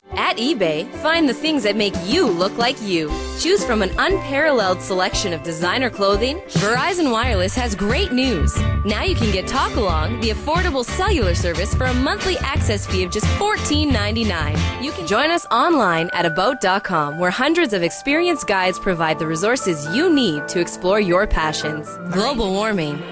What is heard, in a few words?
USA